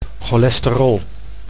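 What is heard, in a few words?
"cholesterol", Dutch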